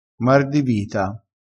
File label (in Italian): "mar di vita", dialettale crespinese